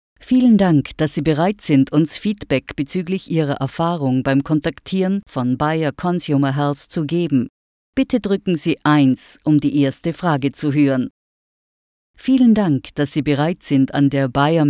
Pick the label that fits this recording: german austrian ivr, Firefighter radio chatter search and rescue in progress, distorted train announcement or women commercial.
german austrian ivr